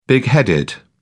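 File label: "bigheaded", British English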